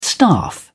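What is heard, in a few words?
"staff", British English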